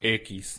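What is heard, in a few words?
"x", Spanish pronunciation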